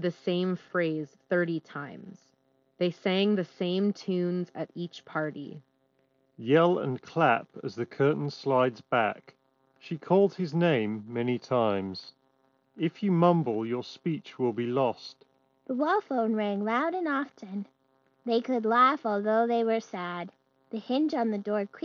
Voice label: Wireless, Ideal microphone sample; V-Moda Crossfade 3